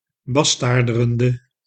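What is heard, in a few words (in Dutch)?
"bastaarderende", Dutch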